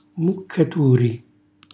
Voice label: "muccaturi", Calabrese; fazzoletto; cucina calabrese; Glossario